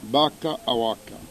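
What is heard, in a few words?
"vacca", Corsican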